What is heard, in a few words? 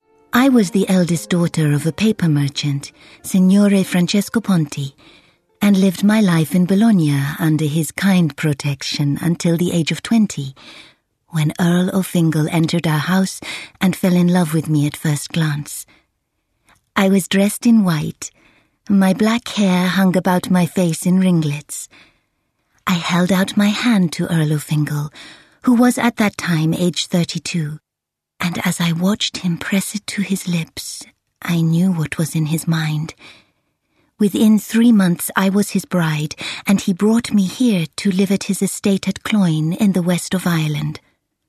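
drama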